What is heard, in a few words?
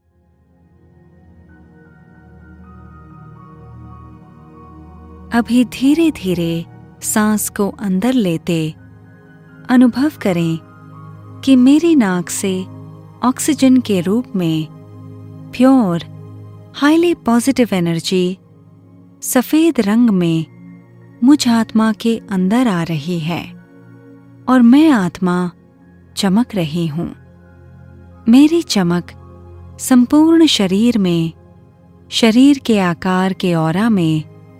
Breathing Practice, Hindi, Meditation; SoulConsciousness